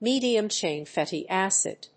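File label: "medium-chain fatty acid", English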